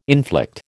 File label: "inflict", American